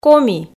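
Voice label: "коми", Komi, female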